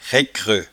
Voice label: "raiccreu", patois jura

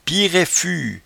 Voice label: "piereefue", patois jura

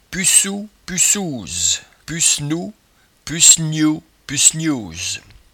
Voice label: "pucoupucouse", patois jura

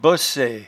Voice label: "bossaie", patois jura

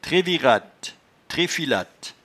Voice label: "treviratte", patois jura

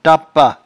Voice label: "tapa", patois jura

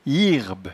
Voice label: "hierbe", patois jura

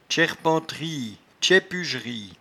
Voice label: "tchairpenterie", patois jura

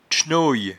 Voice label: "tchnoye", patois jura

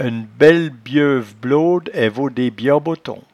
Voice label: "ennebellebieuve", patois jura

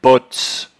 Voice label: "potse", patois jura

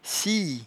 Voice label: "cie", patois jura

